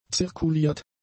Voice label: "zirkuliert", German; adjectives

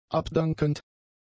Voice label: "abdankend", German; adjectives

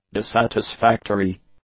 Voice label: "dissatisfactory", English; adjectives

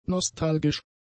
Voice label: "nostalgisch", German; adjectives

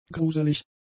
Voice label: "gruselig", German; adjectives

